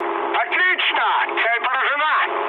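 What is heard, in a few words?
Russian bomb success mood med, speech; War Thunder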